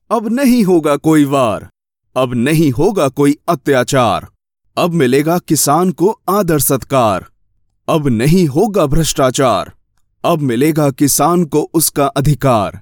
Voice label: Hindi male